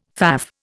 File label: "faff", Indian